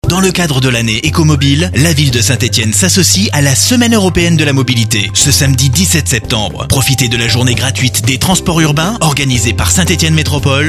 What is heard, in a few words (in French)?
radio pub spot